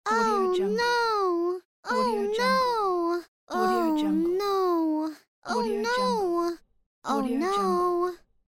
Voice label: female child saying oh no